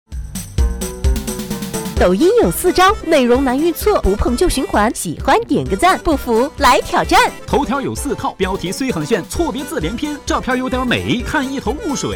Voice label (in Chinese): 男 电台广告